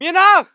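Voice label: voice german infantry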